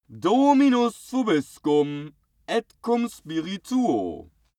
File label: male pray latin